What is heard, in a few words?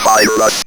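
ROBVOX, robot voice; E-Lab; X Static Goldmine 1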